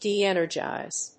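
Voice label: "de-energize", English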